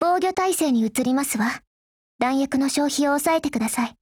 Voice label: DEFENSE JP